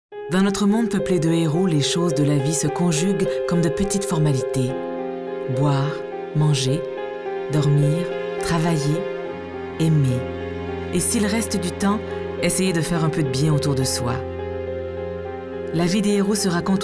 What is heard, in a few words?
Narration